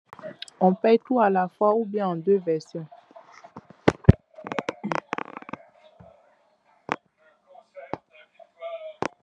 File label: voice message